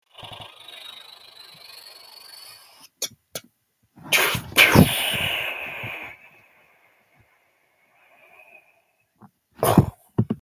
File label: voice message